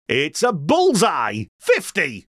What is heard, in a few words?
call bullseye 50, Bingo Calls; Bouncy Bingo Balls; Eyecon